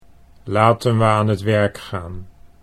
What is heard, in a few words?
"laten we aan t werk gaan", Dutch